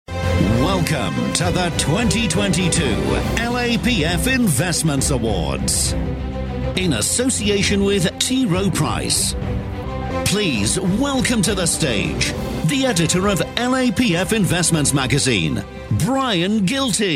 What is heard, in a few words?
voice of god pre-recorded